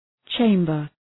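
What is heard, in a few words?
"chamber", English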